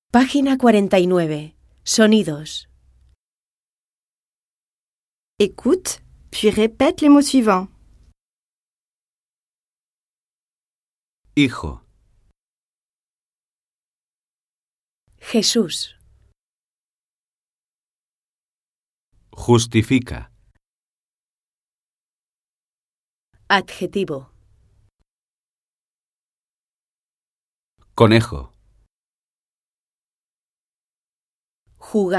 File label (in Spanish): pronunciar la j